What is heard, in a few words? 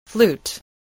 "flute", spelling